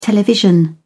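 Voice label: "television", British English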